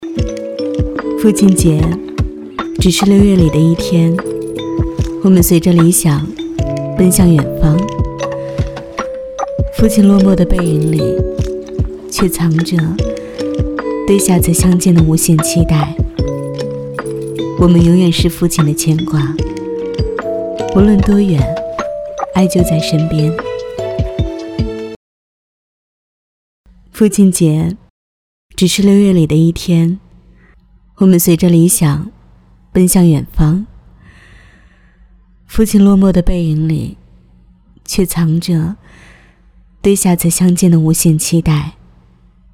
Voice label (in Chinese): 女 素人 温情感人